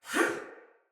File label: Attack Soldier Male; Sinecure Soldier Male A